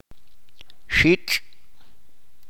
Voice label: "witch", Chalchiteko

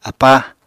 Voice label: "apáa", Apatani

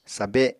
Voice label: "sabe", Apatani

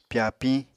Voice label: "pyapiñ", Apatani

